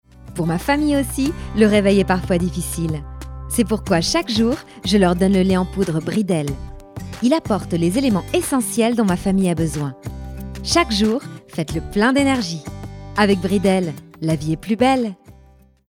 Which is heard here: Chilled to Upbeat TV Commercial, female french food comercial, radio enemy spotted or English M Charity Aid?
female french food comercial